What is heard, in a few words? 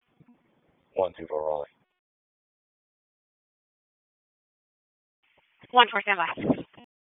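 Police District Dispatch, p25; Raleigh; Southwest; wake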